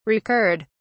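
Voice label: "recurred", British English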